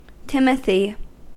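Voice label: "timothy", US English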